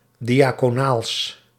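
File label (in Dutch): "diaconaals", Dutch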